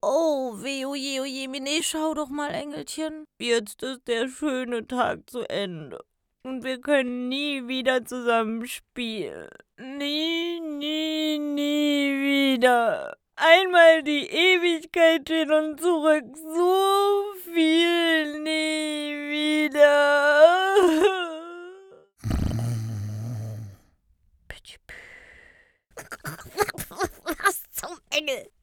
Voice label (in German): Hoerspiel Teufelchen